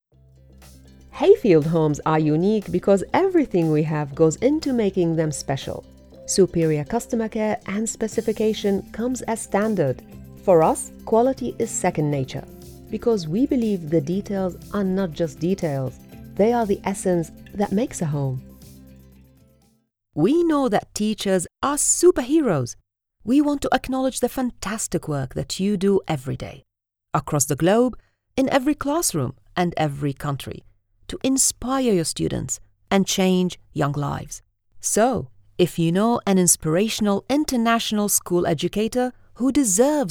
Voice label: english, english female voices arabic accent